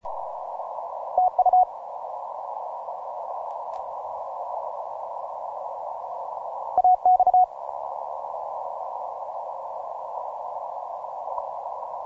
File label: 20M CW; ROCKALL